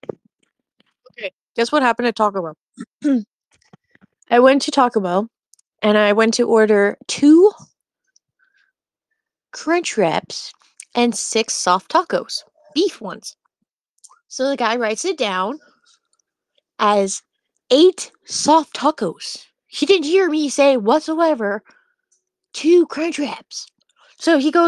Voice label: voice message